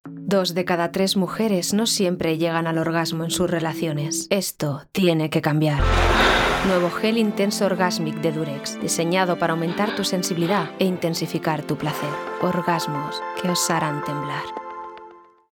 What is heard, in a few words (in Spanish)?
Castellano; Geldurex